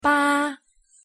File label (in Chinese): "八", pronunciation zh